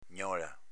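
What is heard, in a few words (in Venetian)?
"gnola", Veneto dialect; s.f. lamentela, piagnisteo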